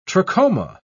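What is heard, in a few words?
"trachoma", British English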